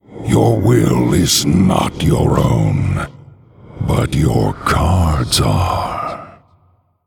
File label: Male Undead; The Lich King; greeting